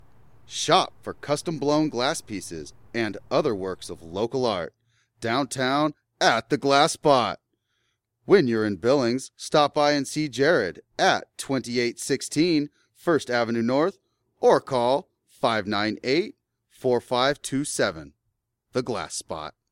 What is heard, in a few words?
glass spot